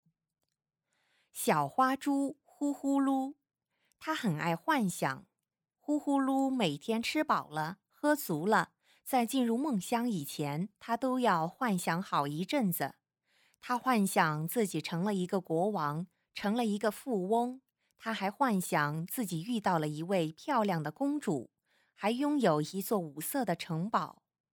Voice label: 儿童故事样音

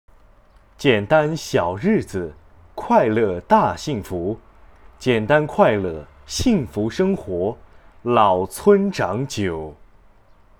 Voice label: 配音广告

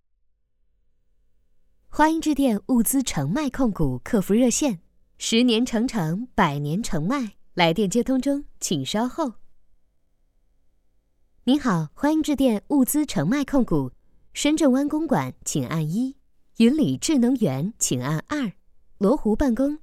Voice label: 来电彩铃

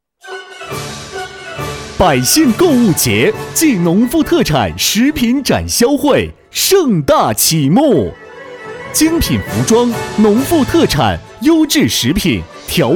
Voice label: 促销; 百姓购物节

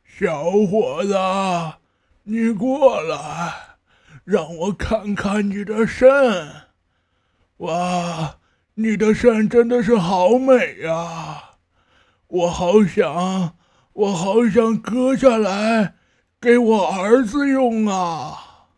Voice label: 老头音

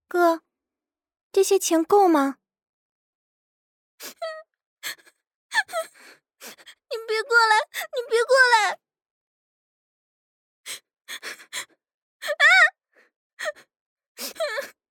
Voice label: 女角色; 异能觉醒

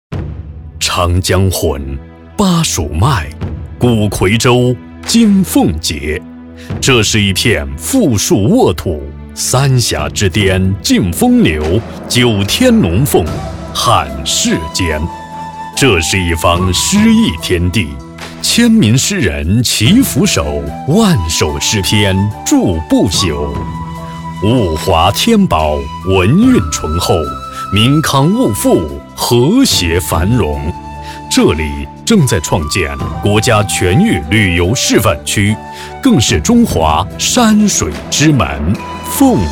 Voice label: 专题 城市宣传片; 奉节

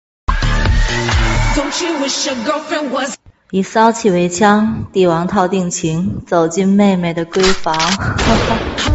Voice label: 御姐音

